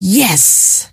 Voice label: "yes", scientist female

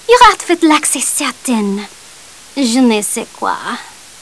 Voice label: chat; maeve

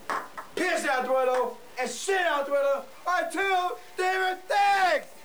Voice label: Chat

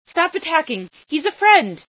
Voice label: stop attacking, female sci

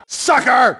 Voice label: "recon", grunt; nimble grunt

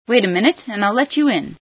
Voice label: let you in, female

